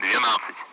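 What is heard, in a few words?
Speech, Russian, Numbers, 12; Allied Flight; DCS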